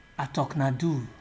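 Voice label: "atoknado", Nigerian Pidgin, male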